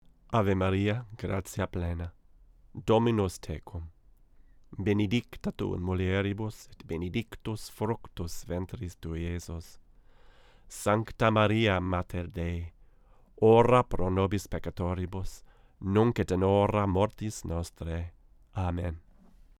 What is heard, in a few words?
VO Latin; Realios A9031